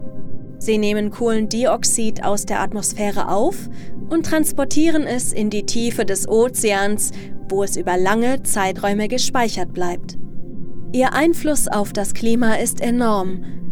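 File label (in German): Doku Krill, Sprecherin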